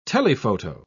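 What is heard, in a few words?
"telephoto", British English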